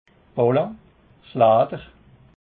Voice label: "balle", alsace

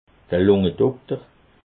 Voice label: "lungedokter", alsace

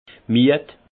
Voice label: "mied", alsace; vin; fatigué

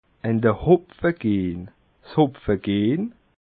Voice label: "hopfegehn", alsace

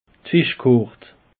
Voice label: "fischkart", alsace; peche

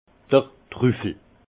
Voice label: "truffel", Alsace; vin

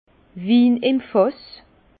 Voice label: "win im fass", Bas Rhin; vin; vin en vrac

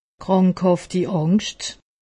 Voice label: "krankhaftangst", alsace; medecine

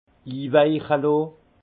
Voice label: "inwaichelohn", alsace; vin; ramollir par trempage

